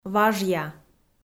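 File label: "важъя", Komi, female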